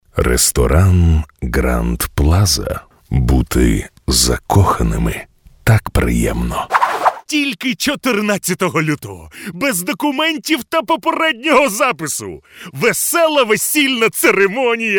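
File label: Ukrainian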